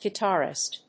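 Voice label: "guitarist", English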